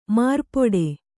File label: "ಮಾರ್ಪೊಡೆ", kannada